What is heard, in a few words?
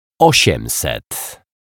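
"osiemset", Polish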